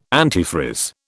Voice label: "antifreeze", British